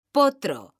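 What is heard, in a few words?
"potro", Spanish, female; mekanta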